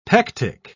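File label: "pectic", British English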